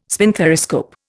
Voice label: "spinthariscope", Indian

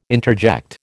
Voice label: "interject", American

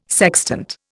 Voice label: "sextant", Indian

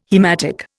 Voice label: "haematic", Indian

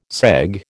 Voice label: "segue", American

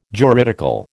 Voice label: "juridical", American

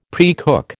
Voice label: "precook", American

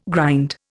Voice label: "grind", Indian

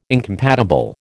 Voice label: "incompatible", American

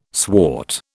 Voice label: "swart", British

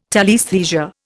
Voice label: "telaesthesia", Indian

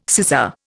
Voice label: "scissor", Indian

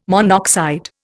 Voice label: "monoxide", Indian

